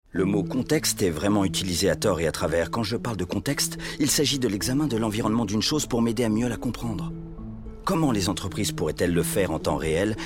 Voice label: Spot TV dub.; IBM

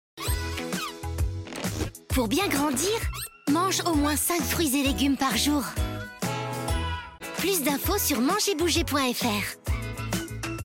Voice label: SPOT, voix-off; 5 FRUITS ET LEGUMES

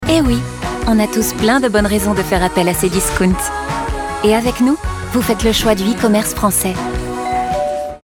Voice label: PUB FR; Cdiscount

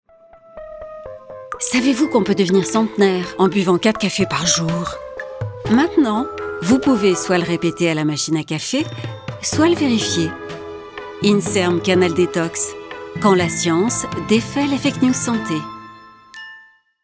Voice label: Pub TV Web Radio FR; INSERM

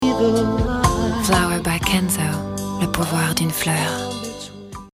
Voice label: pub TV FR pouvoir; FlowerByKenzo2015

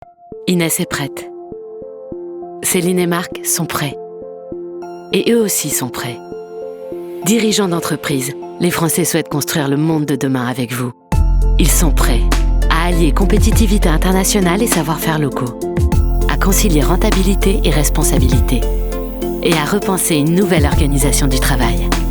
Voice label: pub tv; Mazard

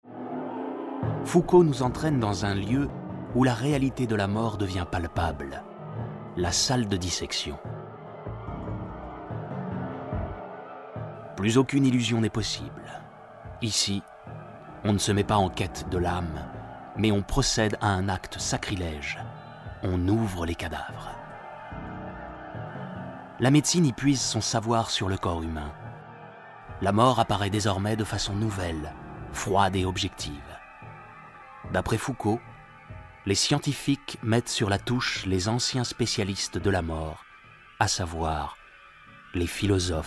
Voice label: narration; Philosophie; Arte

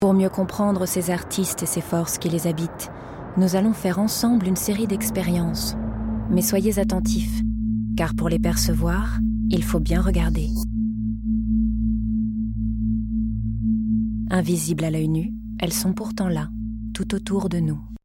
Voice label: DOCU FR Teaser Docu; Arte

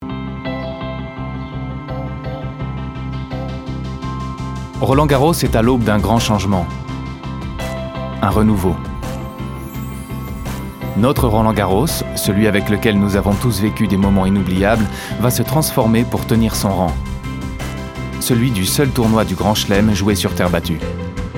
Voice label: Pub stade; Roland Garros

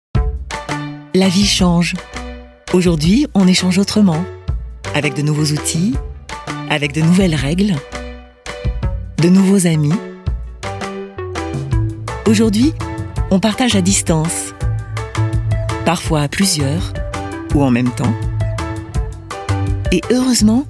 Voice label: souriant naturel; AUDIKA Manifesto

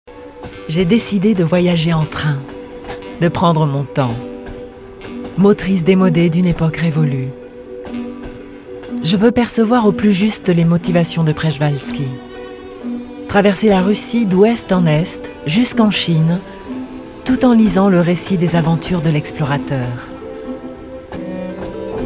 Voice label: Docu souriant pose Nostalgique; Sur le Toit du Monde

